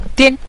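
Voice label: "dian", Mandarin, neutral tone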